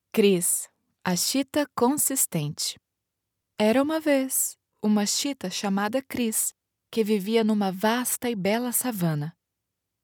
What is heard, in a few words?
audiobook kids bedtime story narrator natural voice storytelling calm; cheeta; acx